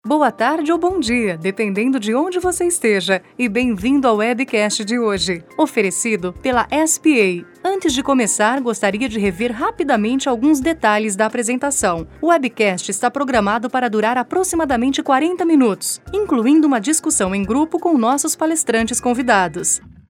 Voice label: br portuguese informative

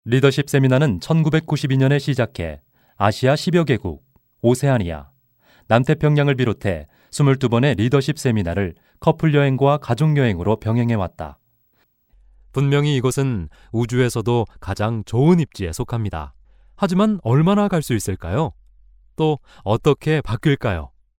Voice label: Korean informative, male

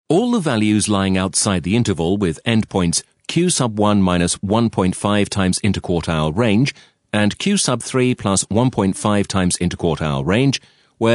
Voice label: uk elearning tech

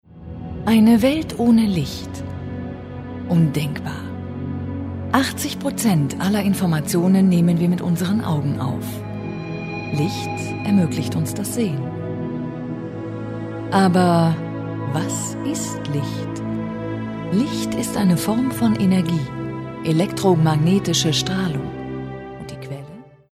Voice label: de documentary, tysk kvinne

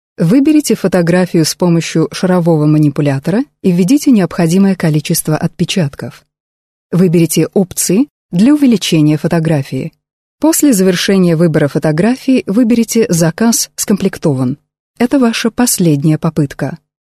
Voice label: ru informative

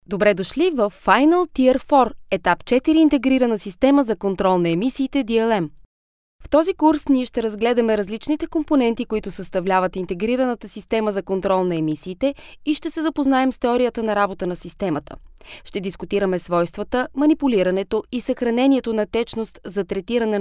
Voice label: bulgarian narration

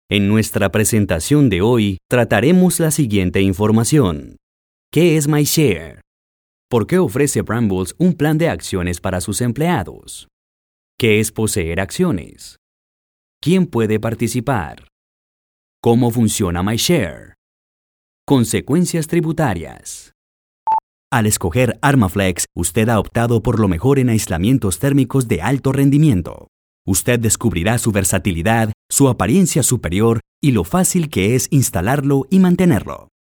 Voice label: spanish latin american narration

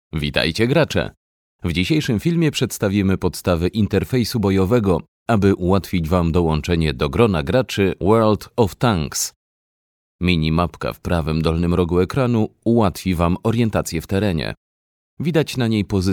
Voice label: pl corporate

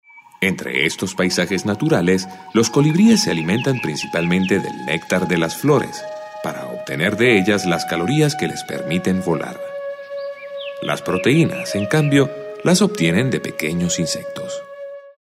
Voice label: spanish latin venezuelan documentary

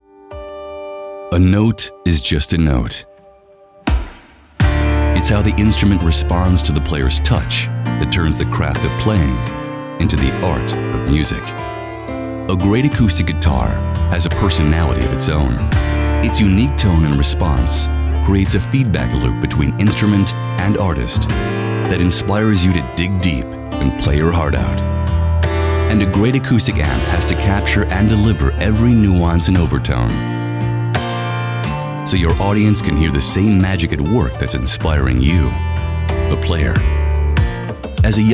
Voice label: US product presentation, male; english-us

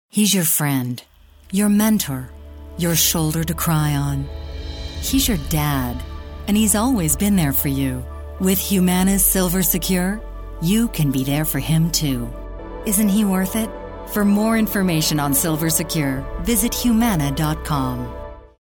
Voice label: us commercial

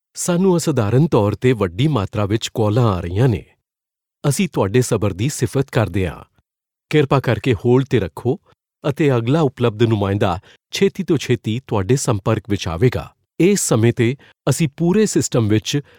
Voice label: punjabi; mann

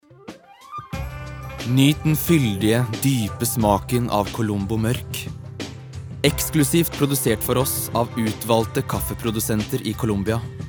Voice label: no soft sell

